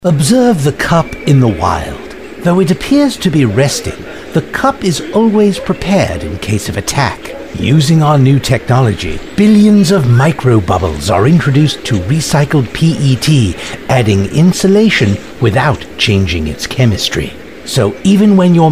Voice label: Narration